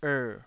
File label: "err3", Taiwanese